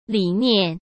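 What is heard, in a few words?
"理念", Mandarin Chinese; chinese-flashcards; hsk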